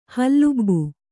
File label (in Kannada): "ಹಲುಬು", kannada